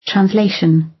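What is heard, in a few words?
"translation", British; Language, linguistics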